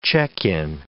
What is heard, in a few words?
"check-in", English